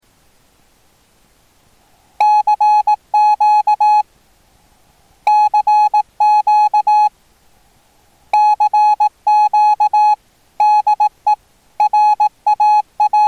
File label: CQ no AGC CQ AGC in CQ AGC out